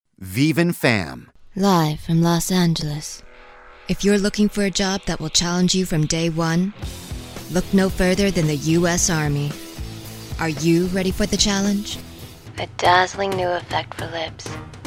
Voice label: commercial